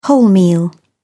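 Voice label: "wholemeal", British English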